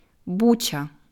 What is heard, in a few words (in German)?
"Bucha", ukrainische aussprache